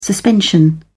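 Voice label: "suspension", British English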